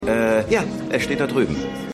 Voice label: Mann

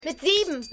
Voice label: Frau, Stimmproben; Seinfeld

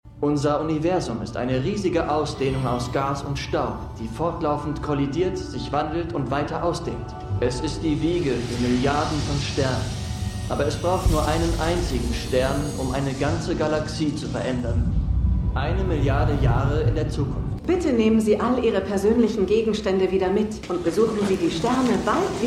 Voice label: Sprecher Sprecherin; Shining Girls

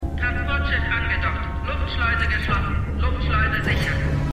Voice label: Computerstimme; "Was du mitnimmst" ("What You Take with You"); The 100

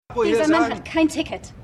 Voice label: Flugbegleiterin; Winning Time

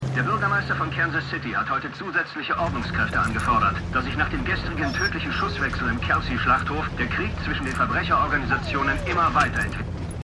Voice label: Radiosprecher; Stimmproben; Fargo

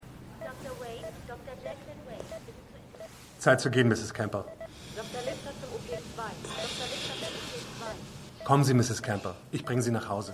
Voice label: Durchsagen Polizist; Stimmproben; City On A Hill

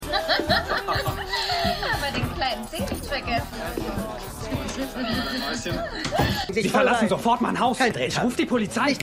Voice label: Frau, Stimmproben; Paul Mosley

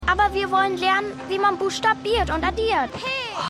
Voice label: Kleines Waisenkind; Schmigadoon

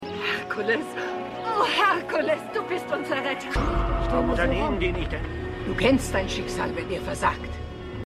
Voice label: Bewohnerin Bewohnerin In Menge; Hercules; Gryphon Aelteste

